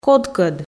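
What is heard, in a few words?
"кодкӧд", Komi, female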